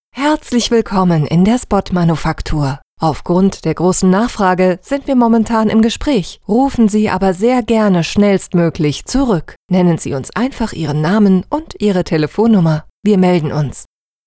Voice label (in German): tel ansage ruhig